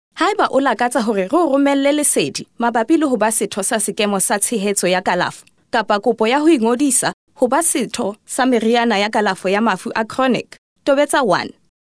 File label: Sesotho female